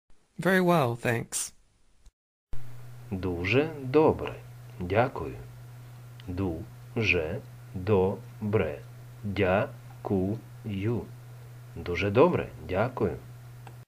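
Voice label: Ukrainian, Very well thanks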